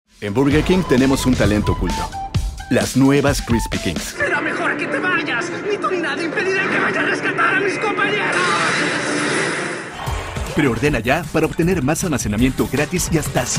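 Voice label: Latin American Spanish, Commercial; GoLocalise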